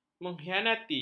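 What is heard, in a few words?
"mengkhianati", Malay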